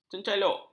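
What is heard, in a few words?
"cencaluk", Malay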